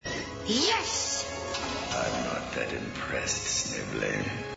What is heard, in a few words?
not impressed